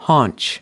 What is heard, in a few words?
"haunch", us